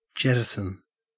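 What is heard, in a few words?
"jettison", Australian English; pronunciations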